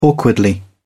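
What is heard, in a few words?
"awkwardly", British English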